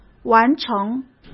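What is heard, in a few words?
"完成", Mandarin Chinese; chinese-flashcards; hsk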